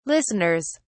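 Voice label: "listeners", British English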